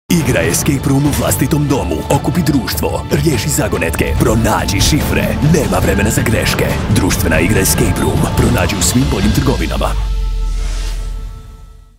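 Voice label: Croatian, general; GoLocalise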